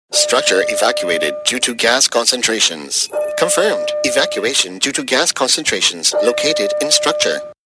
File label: global voiceover system announcements